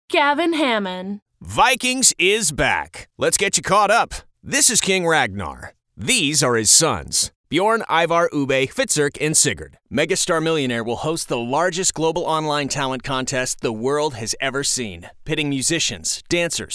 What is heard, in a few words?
promo men